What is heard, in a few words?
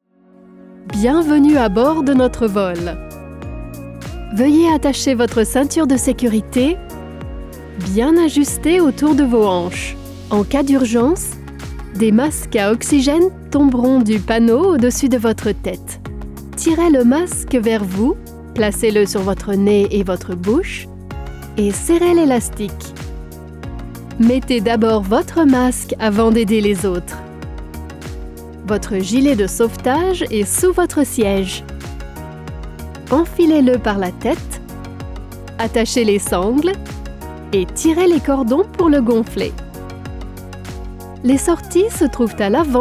french others